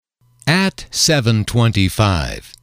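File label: "seven twenty five", English, male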